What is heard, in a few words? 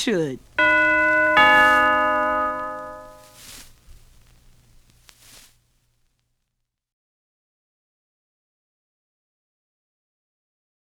Natural Sheen Telephone Radio Commercial; Avon